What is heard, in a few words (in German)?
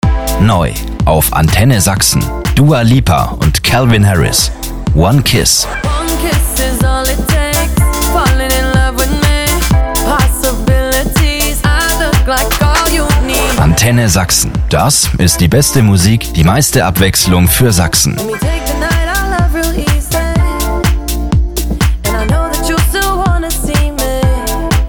station voice normal